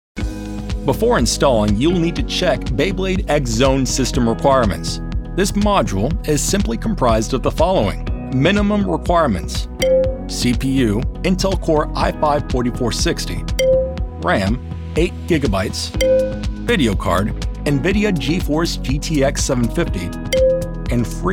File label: E Learning